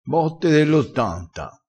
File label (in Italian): "botte dell ottanta", crespinese